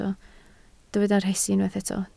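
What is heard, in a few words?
"rhesi" Welsh, spoken word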